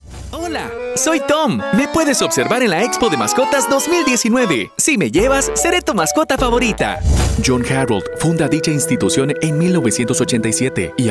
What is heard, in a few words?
Spanish Latin